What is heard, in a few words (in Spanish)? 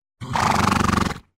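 "caballo", Spanish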